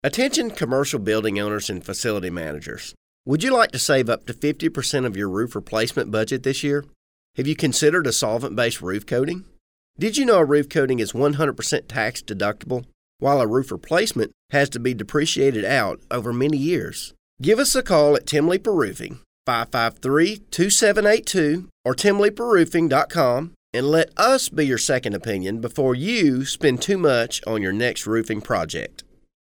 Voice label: Commercial Dry; roofing